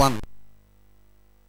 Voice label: Bouncy Balls speech, MOS 6581